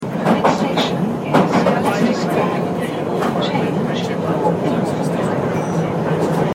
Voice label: Next Leicester Square, Underground, Line Piccadilly